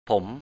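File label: "ผม" Thai, rising tone, male; phǒm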